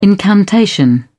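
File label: "incantation", British English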